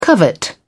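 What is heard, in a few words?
"covet", British English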